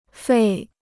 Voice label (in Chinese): "费", Chinese